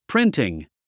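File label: "printing", English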